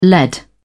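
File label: "led", British English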